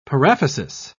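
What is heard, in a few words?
"paraphysis", British English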